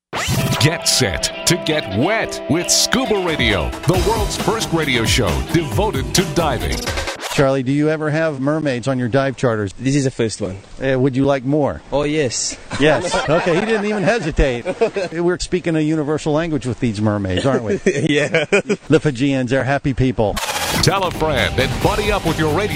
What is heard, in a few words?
Promo